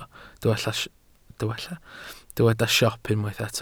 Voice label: "siop", Welsh